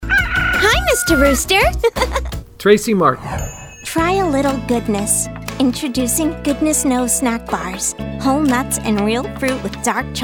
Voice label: commercial